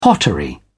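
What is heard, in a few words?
"pottery", British English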